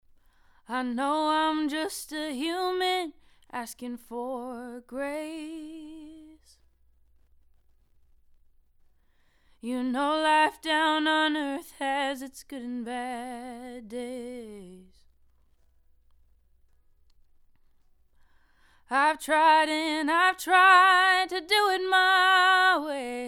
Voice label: Solo Slate C800G, virtual mic; virtual mic shootout